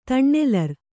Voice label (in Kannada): "ತಣ್ಣೆಲರ್", Kannada